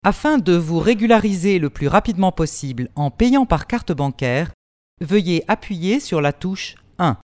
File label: French, קריינות